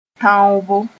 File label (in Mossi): "tãoobo", moore; shooting